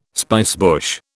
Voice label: "spicebush", British

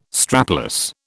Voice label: "strapless", British

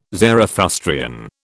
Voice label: "Zarathustrian", British

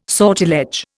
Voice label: "sortilege", Indian

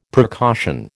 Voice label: "precaution", American

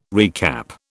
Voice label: "recap", British

